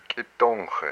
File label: "witch", hupa